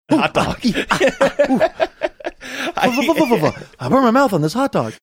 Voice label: making handling and eating hot food sounds saying i burned my mouth on this hot dog